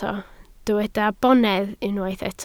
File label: "bonedd", Welsh